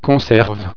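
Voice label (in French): "conserve", French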